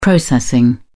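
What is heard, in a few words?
"processing", English, British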